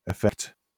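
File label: "effect", British English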